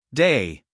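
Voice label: "day", American English, male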